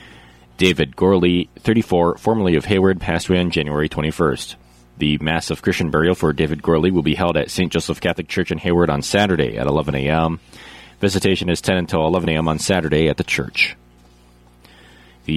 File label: Funeral Announcements